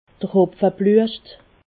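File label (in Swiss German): "hopfebluescht", Alsatian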